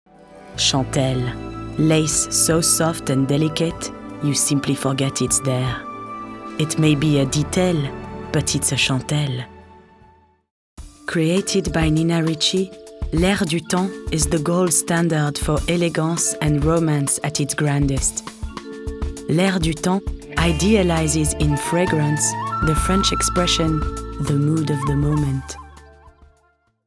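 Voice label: French accented English; GoLocalise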